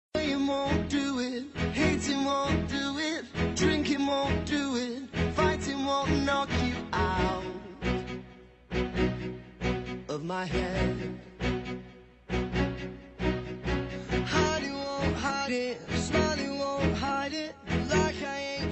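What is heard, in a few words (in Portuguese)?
ANIVERSARIO IRMAO MASCULINO, telemensagem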